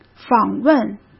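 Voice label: "访问", Mandarin Chinese; chinese flashcards; hsk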